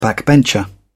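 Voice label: "backbencher", British English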